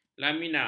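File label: "lamina", Malay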